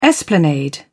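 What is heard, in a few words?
"esplanade", British English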